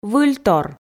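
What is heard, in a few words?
"выльтор", Komi, female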